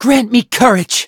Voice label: battle en; cookie run